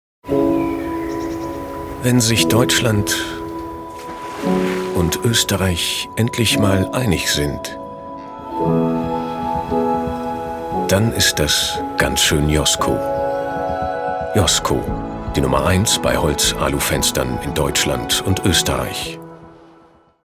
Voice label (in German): Werbung TV